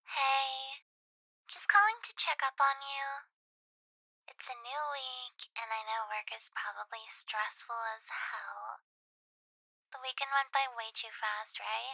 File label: voicemail